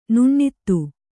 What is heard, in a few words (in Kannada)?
"ನುಣ್ಣಿತು", Kannada